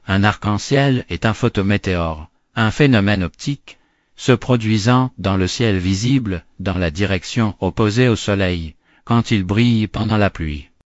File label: speaker, fr FR; piper voices